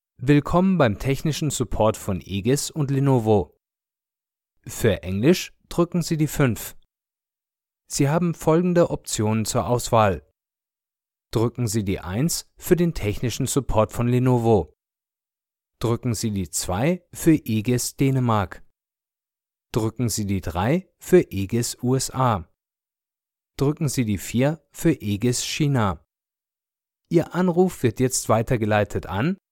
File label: IVR